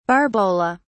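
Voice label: "barbola", British English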